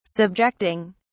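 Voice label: "subjecting", British English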